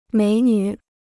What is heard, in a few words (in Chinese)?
"美女", Chinese